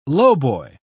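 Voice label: "lowboy", British English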